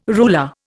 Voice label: "ruler", Indian

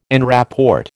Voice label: "en rapport", American

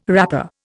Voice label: "wrapper", Indian

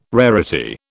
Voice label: "rarity", British

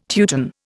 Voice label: "Teuton", Indian

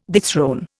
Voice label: "dethrone", Indian

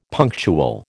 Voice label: "punctual", American

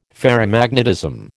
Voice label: "Ferrimagnetism", American

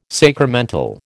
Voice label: "sacramental", American

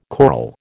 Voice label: "choral", American

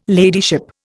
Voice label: "ladyship", Indian

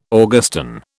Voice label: "Augustan", British

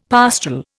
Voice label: "pastoral", Indian; Dictionary

